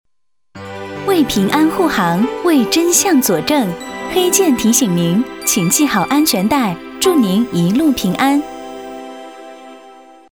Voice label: 女 产品语音提示 温馨

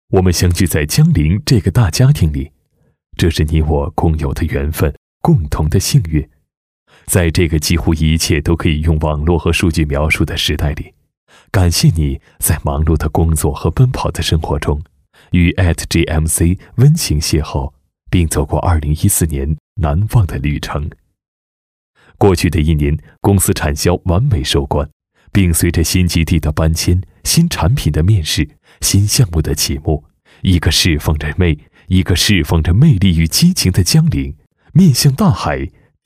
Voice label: 男 广告 温情平和 汽车, 国语男声配音; 江铃汽车

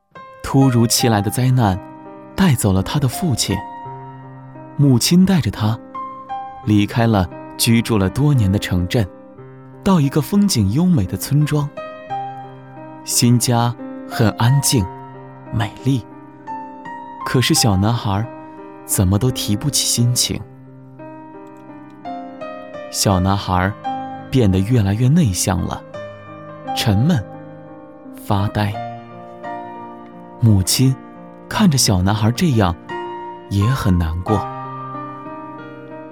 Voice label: 男 解说 叙述回忆

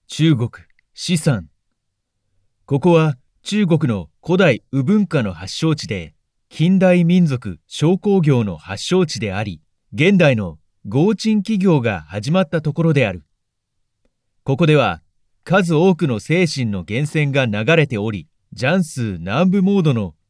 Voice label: 日文版

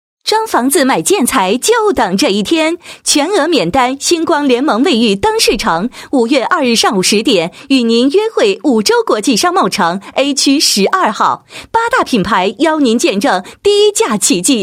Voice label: 女 促销, 建材宣传; 五洲国际商贸城

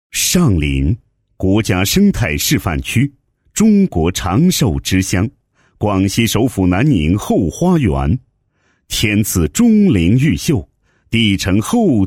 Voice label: 男 旅游宣传片; 上林县

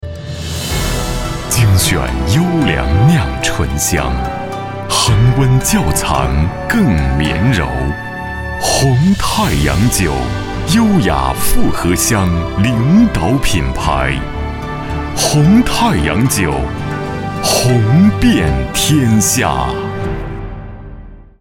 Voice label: 男 广告 酒 恢弘大气, 国语男声配音; 红太阳酒

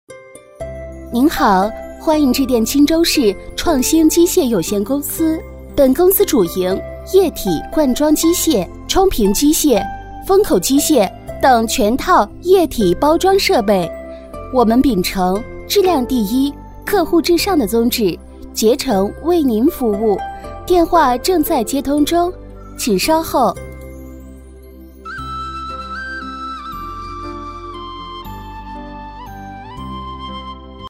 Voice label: 女 彩铃 公司彩铃, 国语女声配音

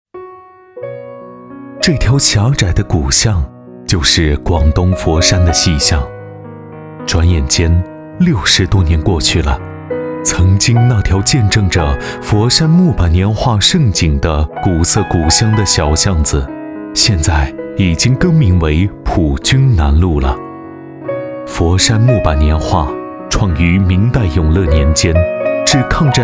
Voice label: 男 温情纪录片风格; 高温陶瓷版画

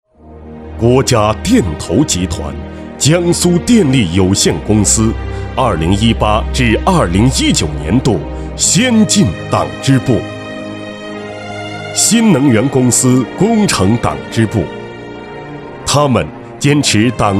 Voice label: 男, 专题, 党建, 厚重大气

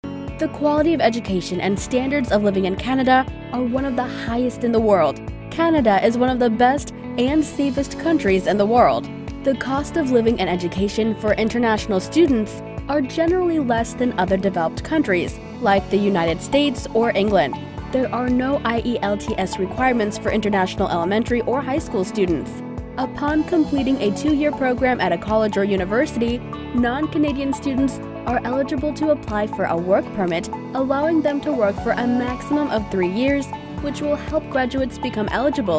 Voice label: 外籍英女, 轻快活力, 广告; 加拿大移民中介

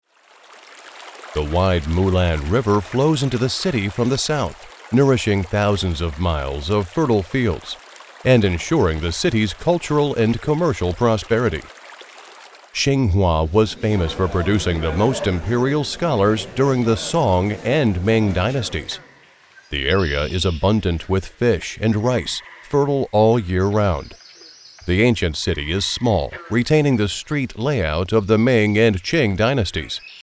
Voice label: 外籍英语男,纪录片,舒缓的讲解; 新华纪录片; Xinghua-Documentary

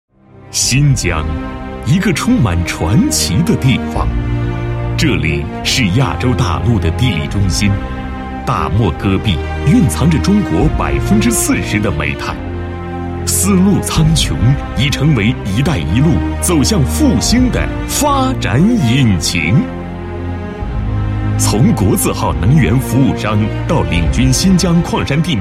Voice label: 男 宣传片 形象片; 中煤建安集团新疆分公司

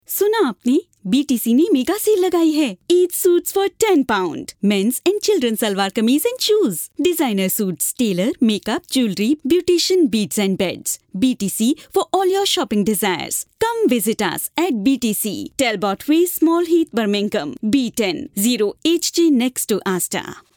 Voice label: 外籍印女,富有感染力,购物中心广告

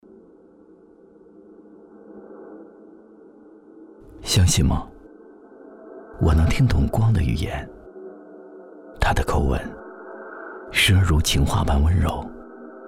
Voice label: 男 品质广告 手机广告; 三星盖乐世

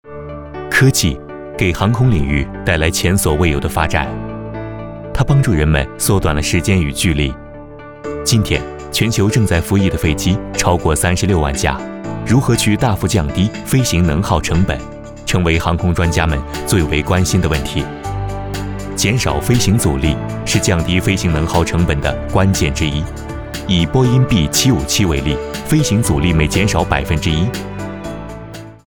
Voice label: 男 专题 说明性

